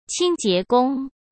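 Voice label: "清洁工", Mandarin Chinese; chinese-flashcards; hsk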